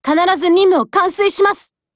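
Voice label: GO ATTACK, Japanese; P08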